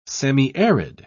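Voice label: "semiarid", English, British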